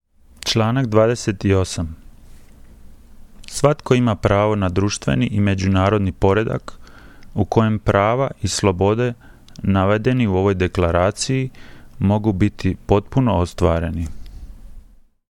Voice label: Croatian